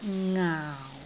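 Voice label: "ngaau4", Cantonese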